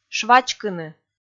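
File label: "швачкыны", Komi, female; kpv audio dict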